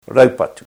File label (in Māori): "raupatu", te reo